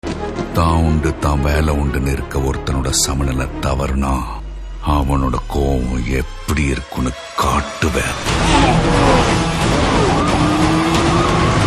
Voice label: Dialogue Ringtone; Valimai Trailer; Ajith